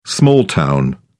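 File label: "small town", English, British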